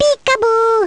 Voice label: "peekaboo", baby; baby mario